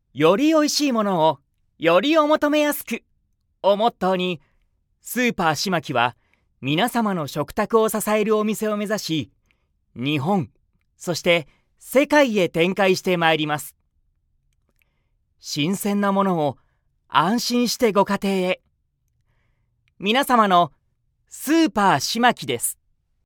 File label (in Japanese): 勢いのあるCM